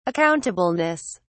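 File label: "accountableness", British English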